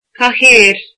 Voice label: "chair", irish